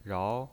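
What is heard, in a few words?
"rao", Mandarin Chinese pinyin voice, second tone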